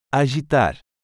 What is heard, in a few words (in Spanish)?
"Agitar" Portugues